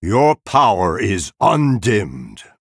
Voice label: Male Night Elf Well Played